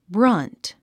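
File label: "brunt", English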